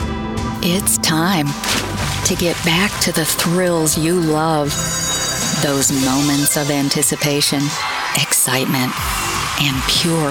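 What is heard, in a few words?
voice over demos; Mandalay Bay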